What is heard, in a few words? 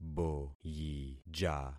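"boyija", concatenated syllables; Affixing experiment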